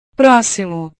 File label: "proximo", portugues